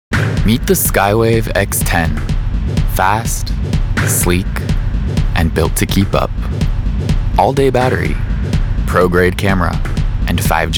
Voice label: Narration; Narration Demo